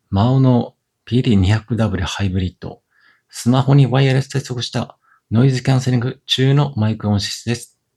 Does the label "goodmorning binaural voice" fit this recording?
No